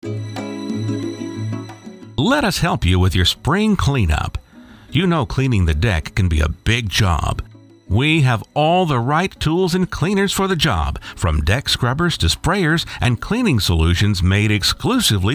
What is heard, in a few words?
Ringback; Dains Deck Cleanup